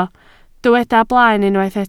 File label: "blaen", welsh